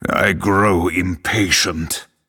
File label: Male Night Elf Thinking